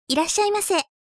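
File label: "irasyai", Japanese; announce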